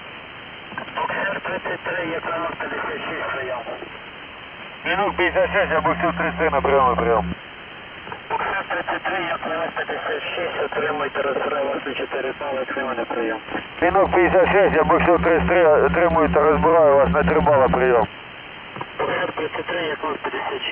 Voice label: ukr voice

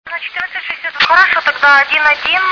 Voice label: taxi

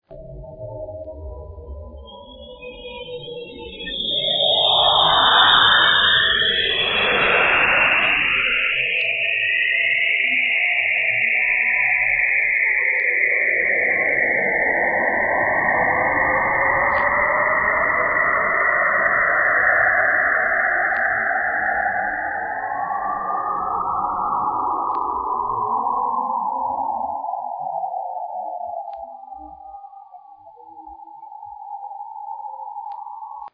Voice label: girl

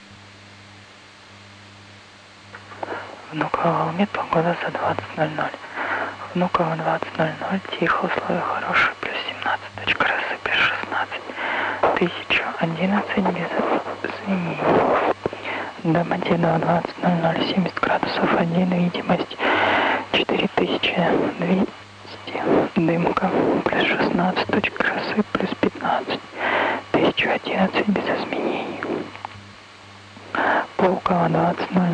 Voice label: vko volmet

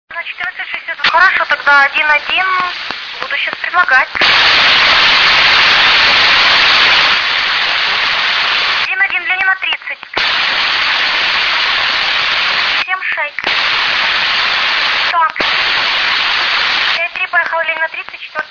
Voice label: taxi

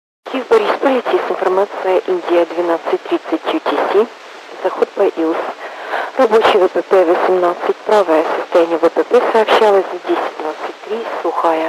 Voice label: atis; kievboryspil

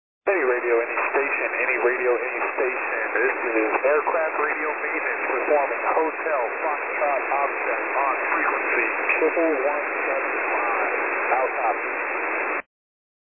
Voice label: aircraft radio

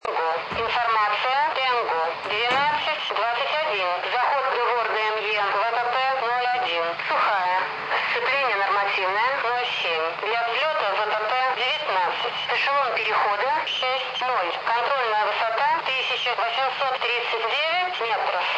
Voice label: ATIS; urkg

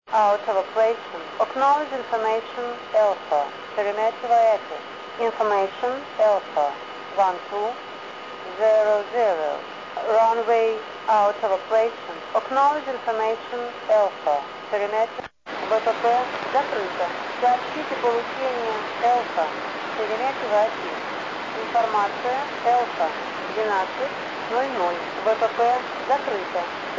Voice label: svo atis

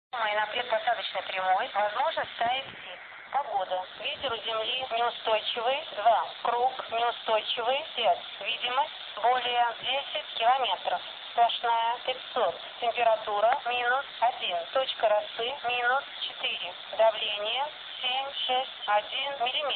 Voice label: atis; bykovo

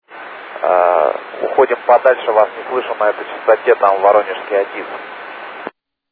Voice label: ATIS; voronez

